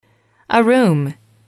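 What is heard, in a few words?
"room", English, female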